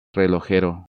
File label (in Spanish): "Relojero", Spanish